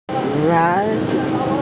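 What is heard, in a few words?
"lai2", Mandarin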